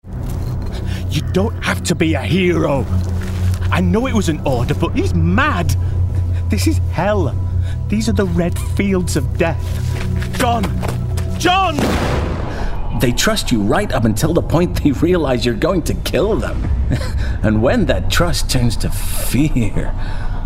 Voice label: character gaming